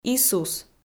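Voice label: "исус" Komi, female; audio dict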